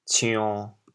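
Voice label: "cion1", Teochew, chaoyang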